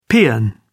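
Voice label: "peon", British English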